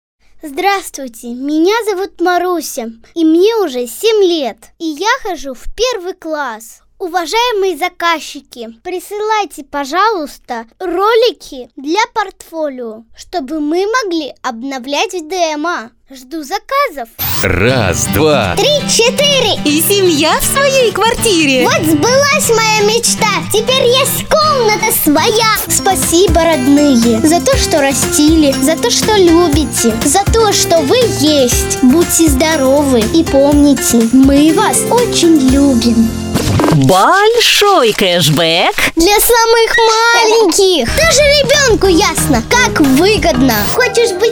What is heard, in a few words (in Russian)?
Детский голос; diktor; children